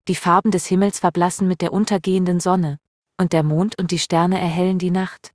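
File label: German, Germany; iOS Sounds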